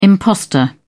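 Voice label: "impostor", British English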